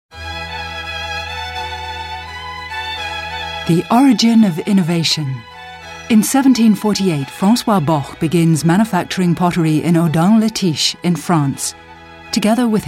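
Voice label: Australian imagefilm, englisch; sprecher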